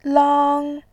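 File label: "lang1", Mandarin Chinese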